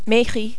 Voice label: "mairie", French

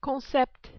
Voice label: "concept", French

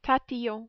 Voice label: "tatillon", French

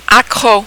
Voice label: "accro", French

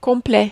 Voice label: "complet", French

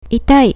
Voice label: "itai", Japanese

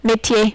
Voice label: "metier", French

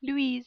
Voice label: "Louise", French

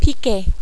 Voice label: "piquer", French

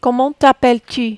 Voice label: "comment t appelles", French

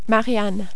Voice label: "marianne", French

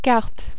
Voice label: "carte", French

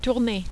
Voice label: "tourner", French

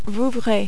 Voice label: "Vouvray", French

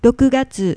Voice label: "june", Japanese

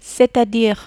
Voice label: "c est a dire", French

